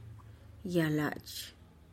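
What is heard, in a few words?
"yalachih", type-of-tree, Tlacochahuaya Zapotec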